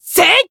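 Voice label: attack, Japanese; buria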